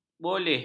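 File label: "boleh", Malay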